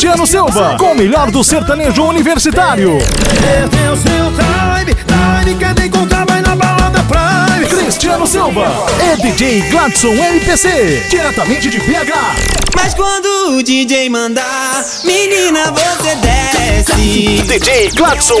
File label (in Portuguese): voz impacto grave vinheta para balada spot chamada comercial propaganda; prime; anuncio